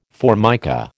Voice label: "Formica", American

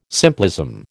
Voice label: "simplism", American

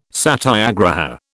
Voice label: "satyagraha", British

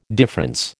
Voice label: "difference", American

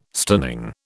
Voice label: "stunning", British

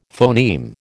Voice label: "phoneme", American

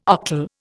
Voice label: "octal", Indian

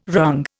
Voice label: "wrung", Indian

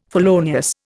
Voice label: "felonious", Indian

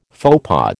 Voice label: "faux pas", American

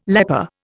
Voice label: "leper", Indian

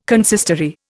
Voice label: "consistory", Indian

